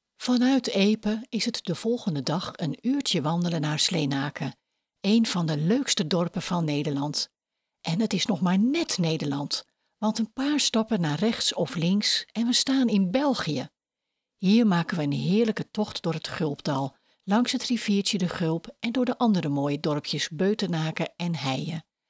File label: docu limburg